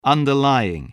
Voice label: "underlying", English spelling voice